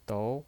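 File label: "dou" Mandarin Chinese, second tone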